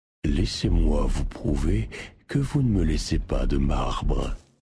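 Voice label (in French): French Male Flirt; Marbre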